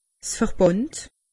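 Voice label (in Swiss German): "verband", Alsatian; medecine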